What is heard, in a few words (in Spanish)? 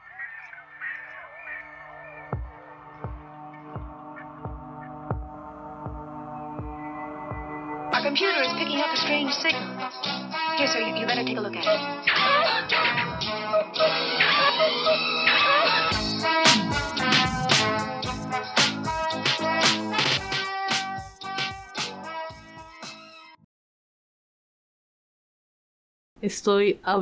Entrevista from la Frontera de Tijuana; Ep 12 Sirena Migrando